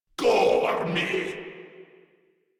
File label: Cover Me, Grineer Male; Warframe